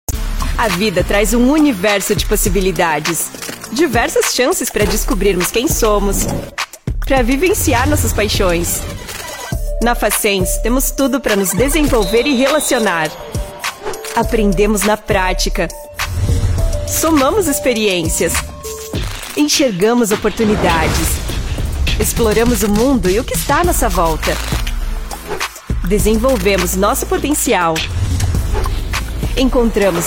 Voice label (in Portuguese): faculdade jovem conversado, Portekizce - Brezilya; facens